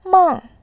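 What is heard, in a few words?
"mang", Mandarin, tone 4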